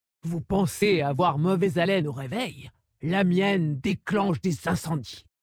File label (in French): VF Female Blague; Dracthyr; MauvaisHaleine